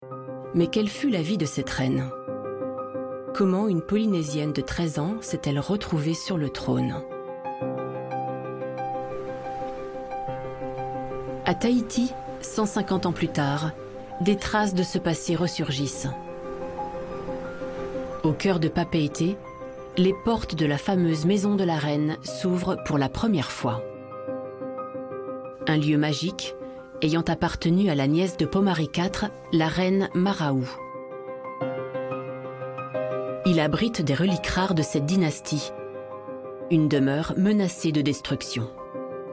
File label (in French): Narration DOC; Tahiti une reine en heritage